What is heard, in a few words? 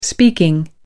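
"speaking", British English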